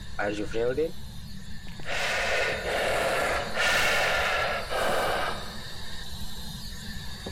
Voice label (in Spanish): sonido deogen spirit box